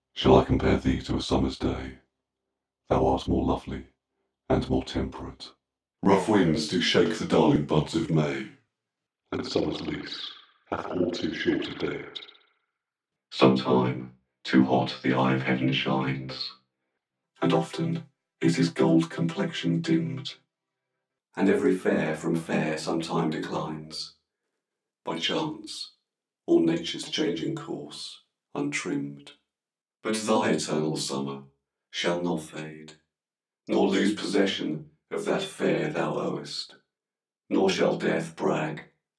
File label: Robots Do Shakespeare